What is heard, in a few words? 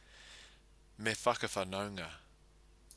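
"me whakawhanaunga", Māori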